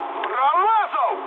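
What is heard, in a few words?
Russian bomb failure mood high, speech; War Thunder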